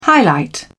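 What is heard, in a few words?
"highlight", British English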